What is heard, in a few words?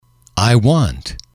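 i want, English, male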